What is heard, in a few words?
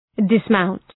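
"dismount", English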